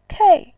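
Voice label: "kei4", Mandarin Chinese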